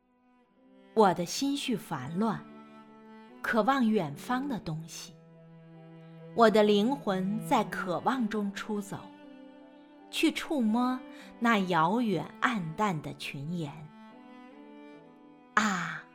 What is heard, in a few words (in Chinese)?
配乐; 园丁集